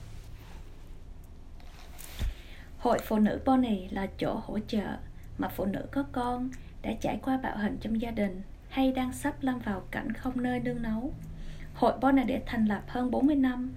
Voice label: Vietnamese